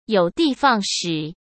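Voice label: "有的放矢", Mandarin Chinese; hsk; chinese flashcards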